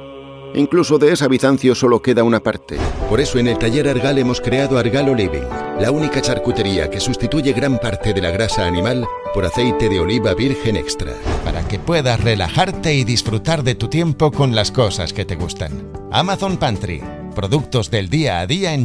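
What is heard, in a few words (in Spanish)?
generico España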